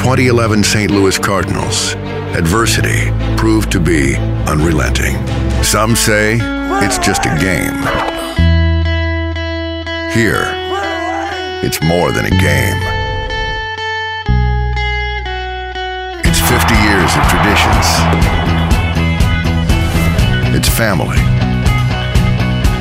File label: men other sports